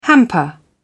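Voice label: "hamper", British English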